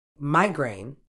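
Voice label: "migraine", British English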